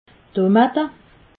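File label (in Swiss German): "tomate", Alsatian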